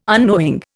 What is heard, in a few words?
"unknowing", Indian